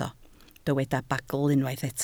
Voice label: "bagl", Welsh